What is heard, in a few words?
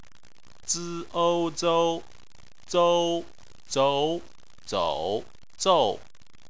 "zou", Chinese